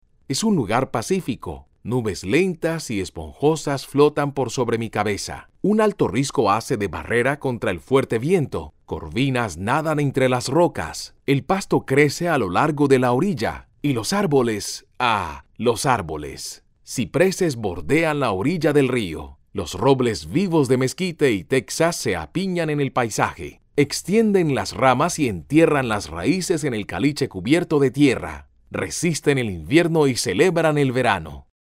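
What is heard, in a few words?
AUDIOBOOK Japanese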